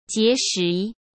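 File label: "结识", Mandarin Chinese; chinese-flashcards; hsk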